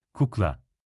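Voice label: "kukla", Turkish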